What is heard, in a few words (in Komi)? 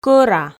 "кӧра" Komi